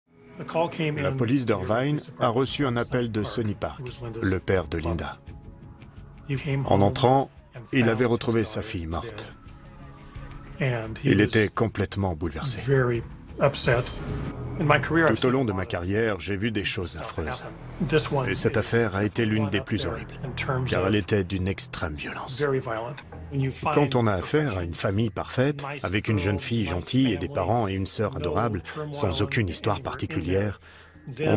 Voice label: French Switzerland TV shows; swiss french

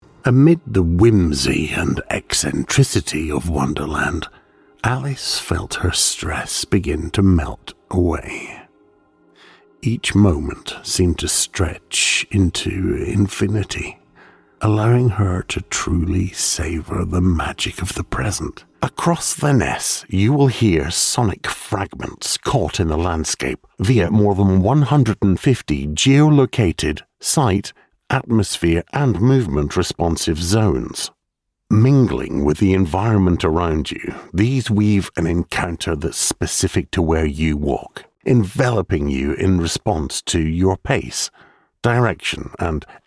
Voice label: english british audio guides

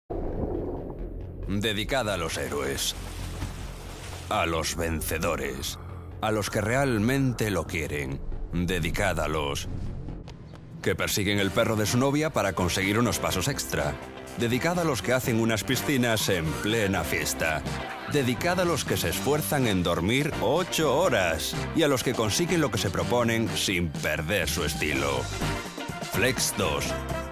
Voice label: spanish commercial; Espagnol; Espagne; doubleur espagnol (castillan); espagnol UE

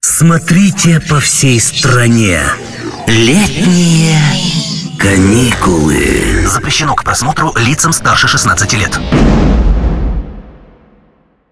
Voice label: Russian movie trailers

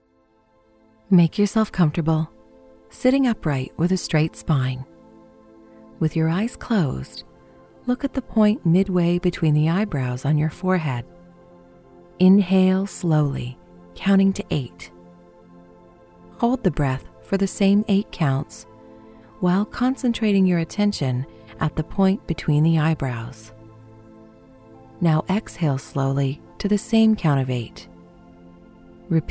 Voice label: english canadian; professional English voice actor

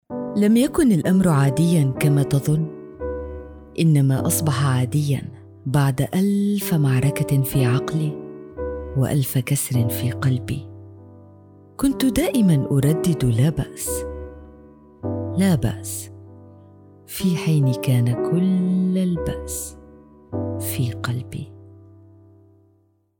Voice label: arabic podcasts

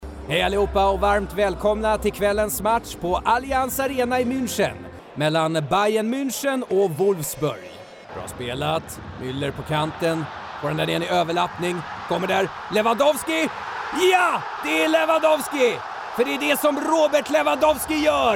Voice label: swedish announcements, voice actor; professioneller schwedischer; Ankündigungen

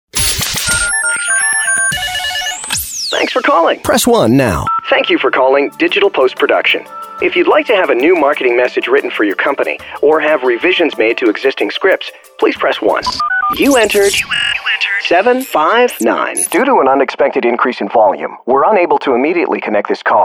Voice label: english american ivr

